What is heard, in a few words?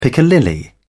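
"piccalilli", British English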